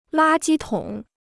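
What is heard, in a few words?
"垃圾桶", Chinese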